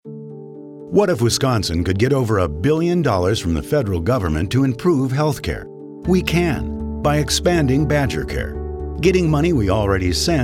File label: Radio; Better Badgercare; Wisconsin; Expand BC; Marklein